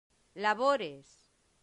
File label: "labores", Latin; santillana